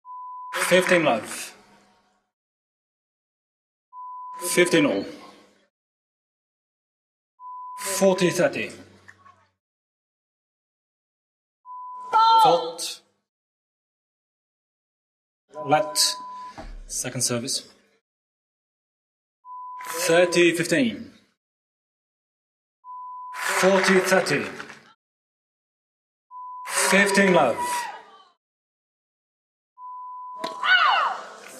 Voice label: Wimbledon umpire